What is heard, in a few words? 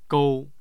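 "gou", Mandarin Chinese, tone 1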